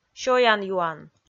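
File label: "сёян-юан", Komi, female; kpv audio dict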